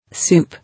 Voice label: "Soup", English